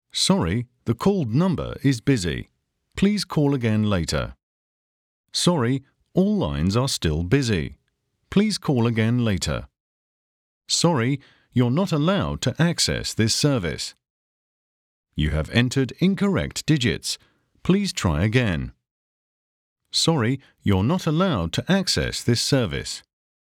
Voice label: Telephone; Alcatel